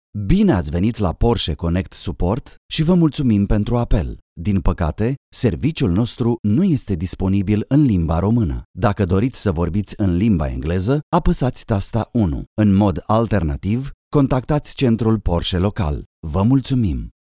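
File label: Romanian, IVR; GoLocalise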